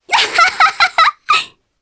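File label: Happy, Japanese; voices; heroes; Reina; kingsraid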